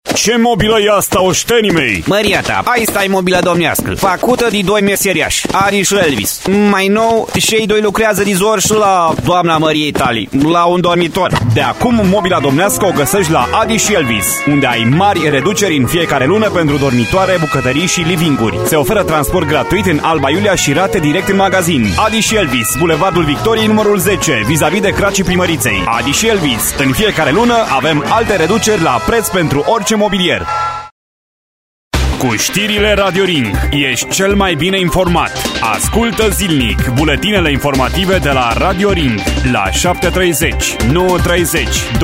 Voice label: male, Romanian